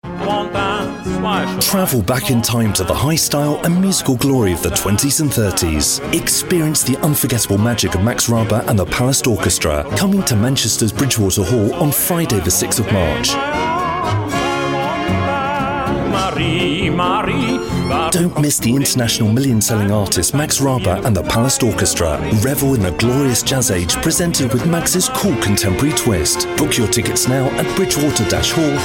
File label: natural